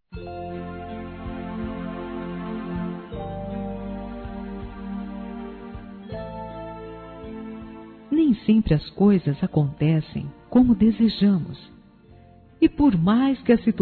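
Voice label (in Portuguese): RECADO AO DOENTE feminino; Telemensagens; Telemensagens 2017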